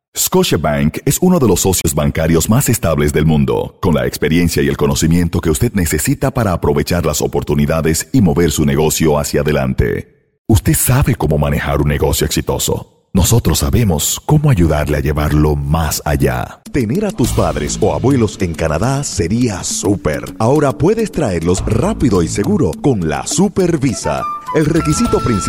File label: F Spanish, voice talent; Toronto Canada